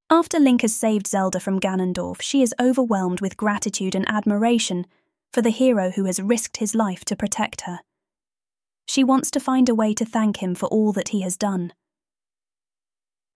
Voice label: Narration; Links Reward